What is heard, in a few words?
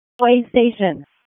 EMS ALERT, p25; wake